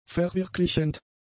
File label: "verwirklichend", German; adjectives